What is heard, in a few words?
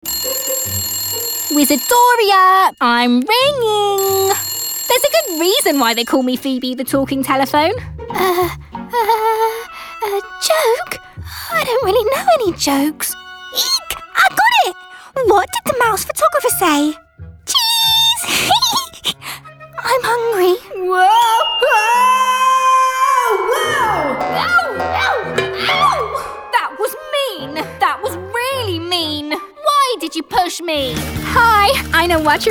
Female montage animation